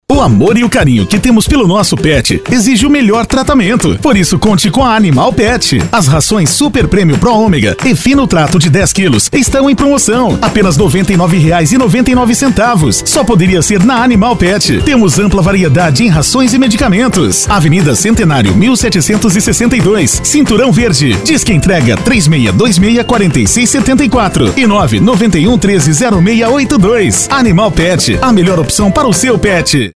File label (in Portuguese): Spot AGRO PET